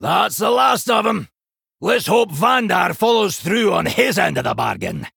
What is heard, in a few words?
Male Dwarf